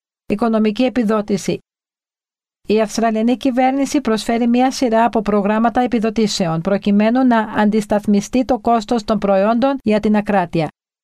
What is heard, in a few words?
Greek; Continence Foundation; Financial Assistance